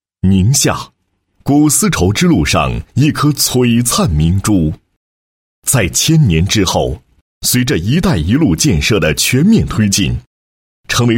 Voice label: 男 纺织企业宣传片; 山东如意集团

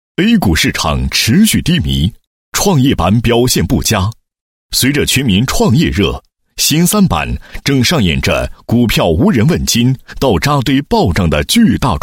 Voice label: 男 视频脚本, 配音; 老来寿1号基金计划视频脚本

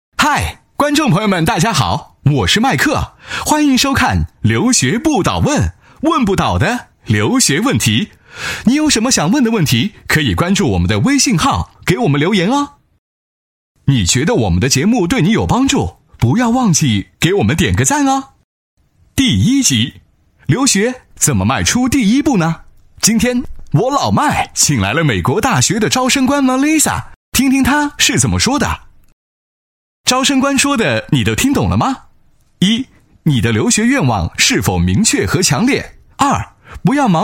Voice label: 男 节目 轻松风格 留学, 磁性; 国语男声配音; 磁性录音

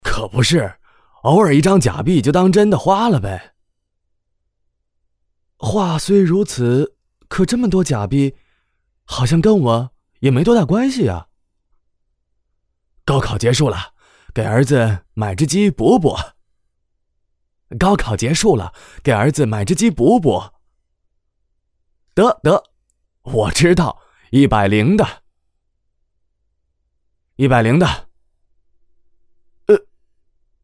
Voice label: 男 中文 角色类 角色配音

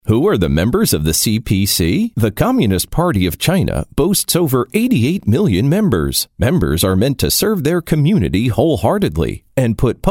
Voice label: 外籍英男 干音 党政宣传片

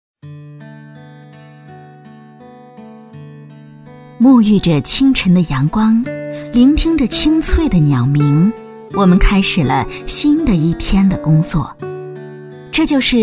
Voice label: 女 工程 温馨介绍; 鲁源工程

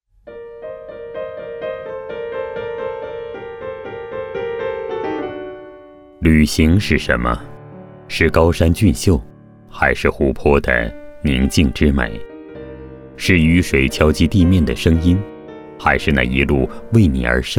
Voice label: 男 专题 文艺风格 旅行社

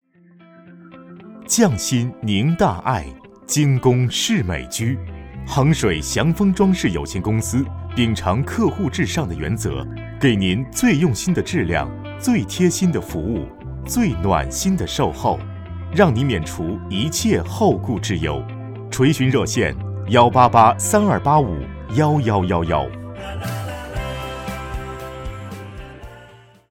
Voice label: 广告 装饰, 国语男声配音, 温情; 祥丰装饰

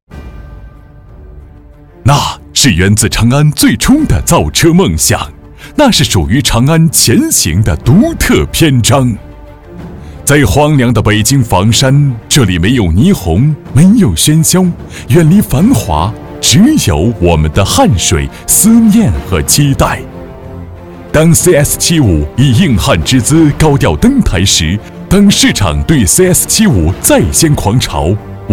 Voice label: 颁奖开场, 开场白主持; 奔跑吧长安研发人

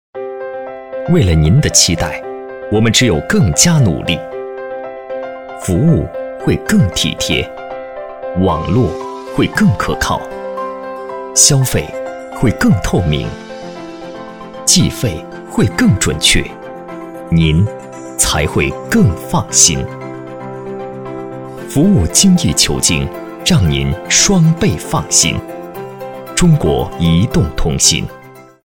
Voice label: 男, 广告; 移动通信

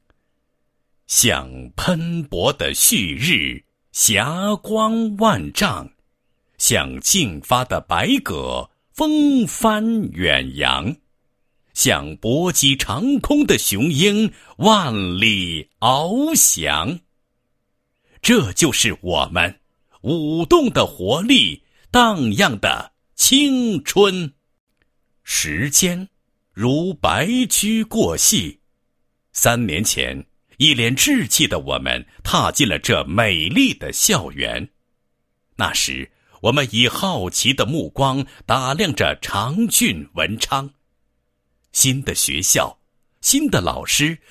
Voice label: 男 学校专题, 中学宣传; 致我们的青春时代 某中学宣传

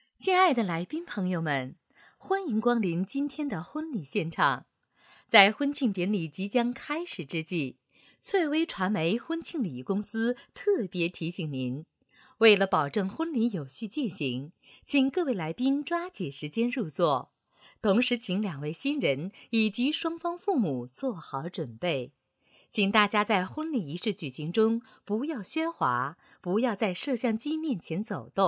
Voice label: 女 婚礼开场白

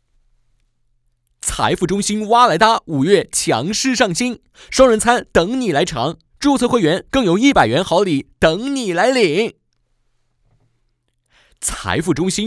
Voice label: 男 广告 年轻 活力; 财富中心蛙来哒

